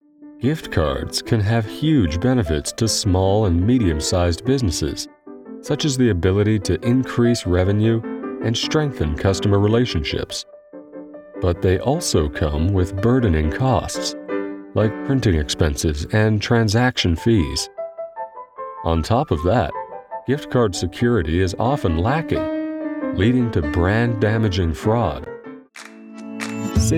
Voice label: Explainer Video